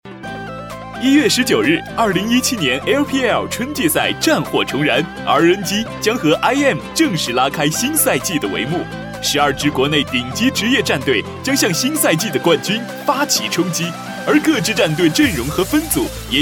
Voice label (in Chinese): 男 游戏解说, 国语男声配音; 英雄联盟英雄盛宴